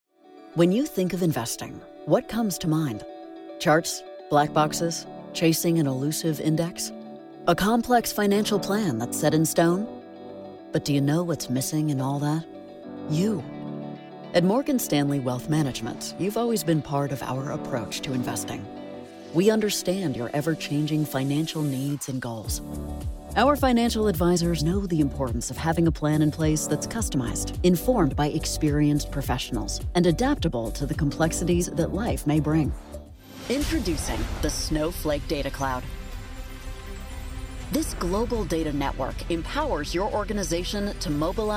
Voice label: Narration